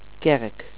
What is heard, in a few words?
"church", Dutch